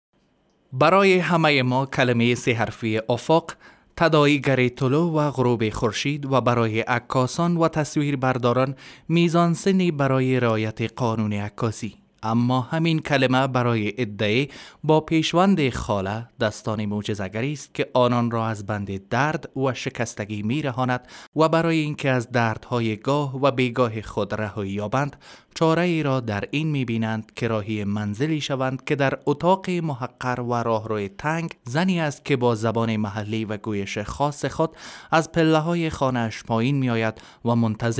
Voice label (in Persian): Dari Podcast